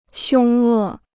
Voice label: "凶恶", Chinese